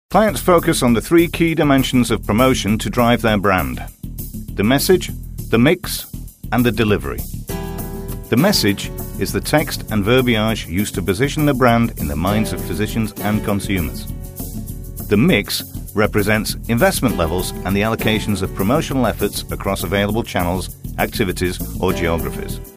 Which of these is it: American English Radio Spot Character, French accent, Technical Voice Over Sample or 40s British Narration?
40s British Narration